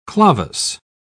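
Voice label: "clavus", English, uk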